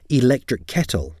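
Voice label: "electric kettle", English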